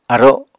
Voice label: "aro", Apatani